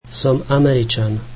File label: slovak Im American